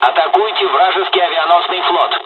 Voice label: bombing, dialogs russian; midway us; War Thunder